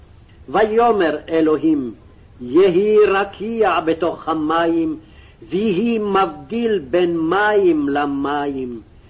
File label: Genesis Reading Practice, Aramaic